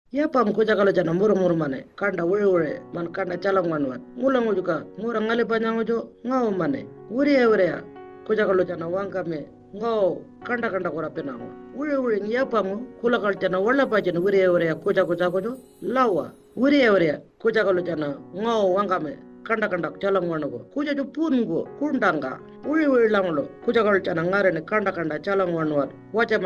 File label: Warlpiri radio